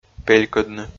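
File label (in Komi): "пелькӧдны", Komi, male; audio dict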